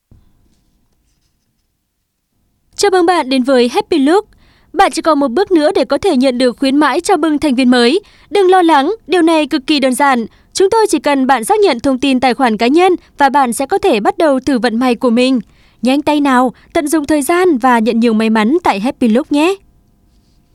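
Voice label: Vietnamese North female; Happy Luke Msg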